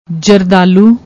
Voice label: "jardaloo", Punjabi